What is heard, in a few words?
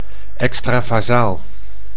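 "extravasaal", Dutch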